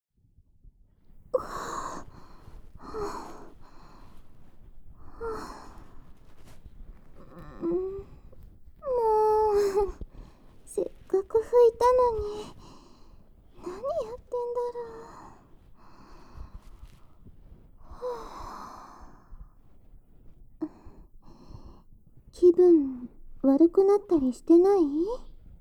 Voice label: kanbyou, ASMR

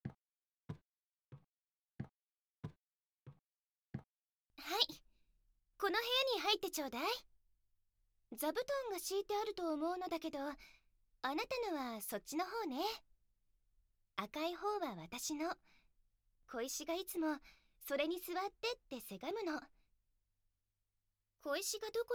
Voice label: Voice, ASMR; あなたは応えてはくれないの?

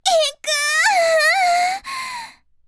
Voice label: h voice; おっぱい図鑑

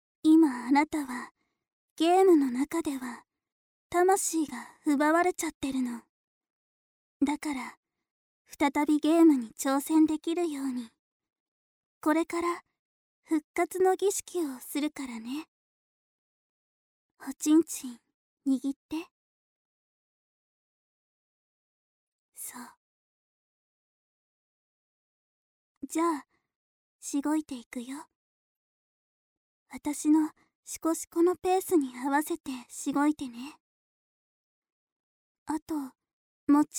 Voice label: ASMR

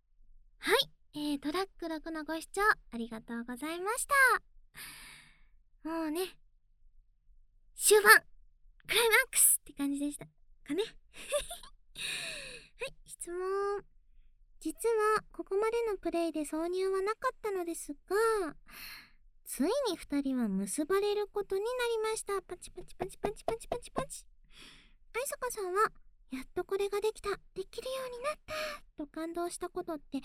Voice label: FREE TALK, ASMR

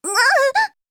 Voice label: h voice; おっぱい図鑑

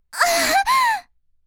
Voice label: h voice; おっぱい図鑑

